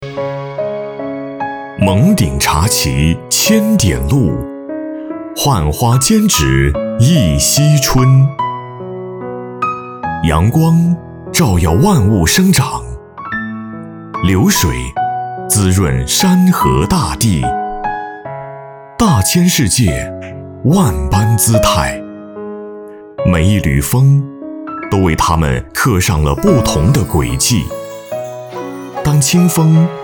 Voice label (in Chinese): 男 专题宣传 大气古风温暖